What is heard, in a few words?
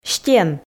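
"стен", Komi, female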